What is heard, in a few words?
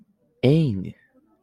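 "Ainu", Japanese, male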